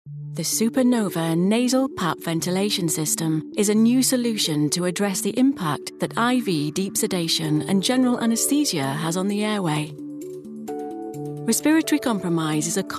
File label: english british